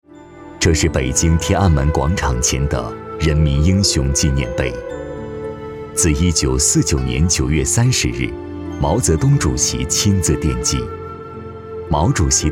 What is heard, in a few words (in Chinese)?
男 纪录片 纪念碑